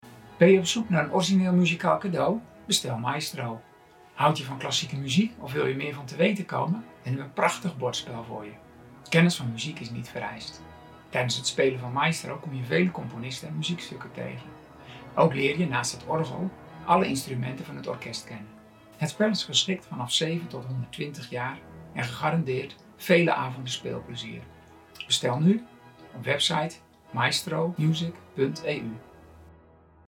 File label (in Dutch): bumper nederlands; maestro